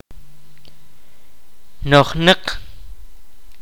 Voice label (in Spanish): "lleno", Kaqchikel